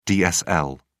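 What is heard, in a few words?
"dsl", British English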